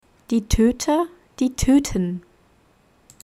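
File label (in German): "die Tüte", German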